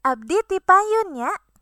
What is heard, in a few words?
goodbye, sundanese